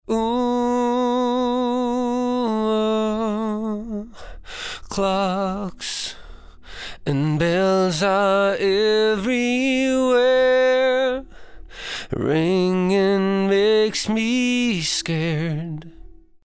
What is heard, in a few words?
MALE VOC CLASSIC CHANNEL